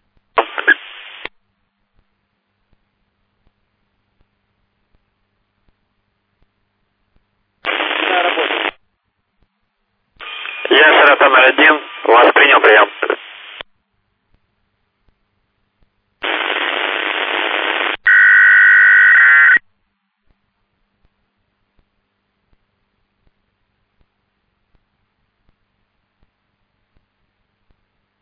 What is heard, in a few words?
telemetry voice